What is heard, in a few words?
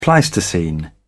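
"pleistocene", British English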